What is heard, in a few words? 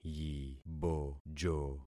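"yibojo", concatenated syllables; Affixing experiment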